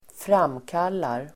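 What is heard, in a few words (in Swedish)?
"framkallar", Swedish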